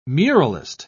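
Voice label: "muralist", British English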